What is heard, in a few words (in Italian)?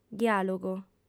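"diàlogo", lingua sarda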